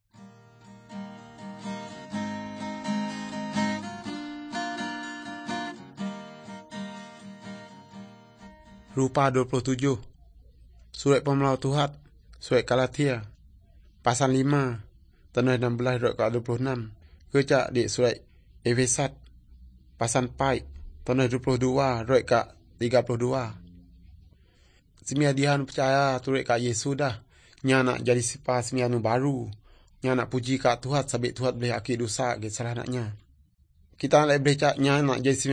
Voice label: good news, urak lawoi

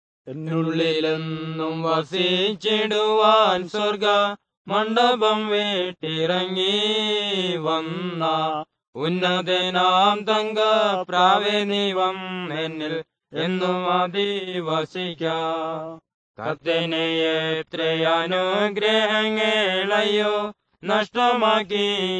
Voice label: words of life malayalam; world bible translation center nt drama

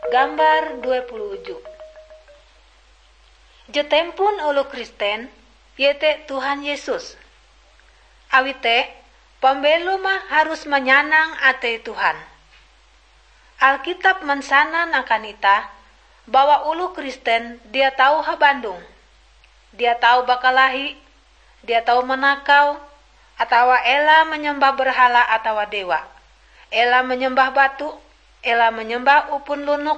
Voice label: kabar bahalap good news ngaju, drama

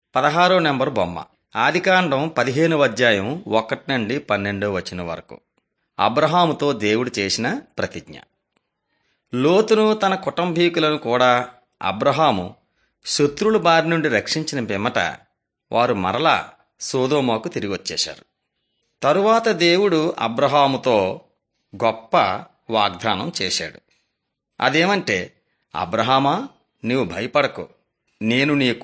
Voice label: telugu bangladesh; beginning with god

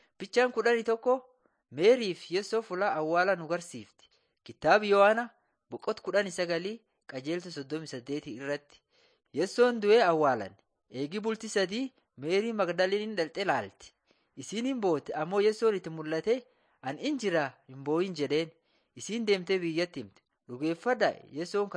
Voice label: borana sakuye; laali chaqasi jiradin 7 jesus lord and saviour

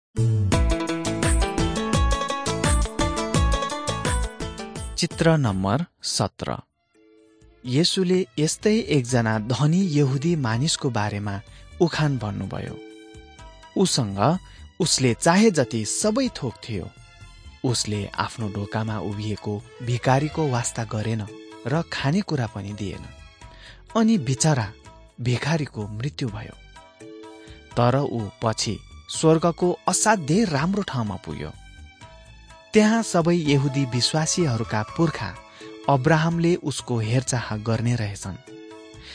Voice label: jesus lord and saviour nepali

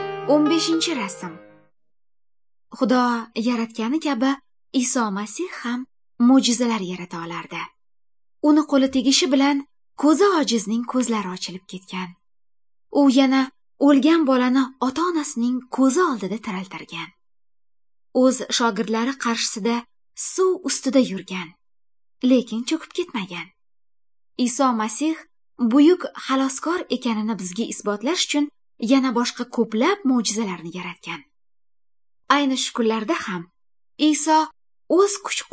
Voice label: good news female uzbek northern

